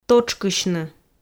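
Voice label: "тотшкысьны", Komi, female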